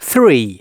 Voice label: announcements male